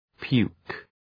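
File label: "puke", English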